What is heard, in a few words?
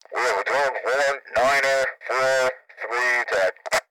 Police Radio Chatter; law; Gameznet